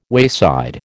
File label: "wayside", American